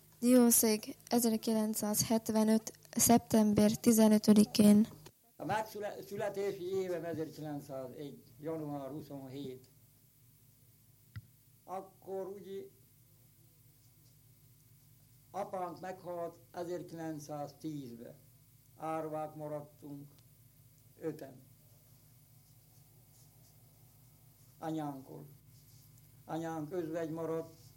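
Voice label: dialect collection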